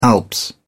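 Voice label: "the alps", British English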